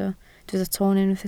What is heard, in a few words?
"toon", Welsh